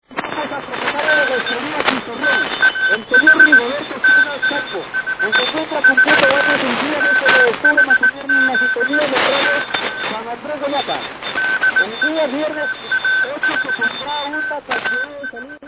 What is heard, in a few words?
4254.4 Radio; San Andres de Llapa